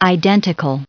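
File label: "identical", US English, recite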